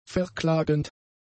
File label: "verklagend", German; adjectives